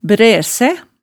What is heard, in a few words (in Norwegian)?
"bræsæ", hemsedalsmaalet tale